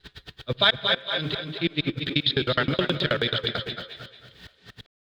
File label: TECHNO VOCALS 140; Techno2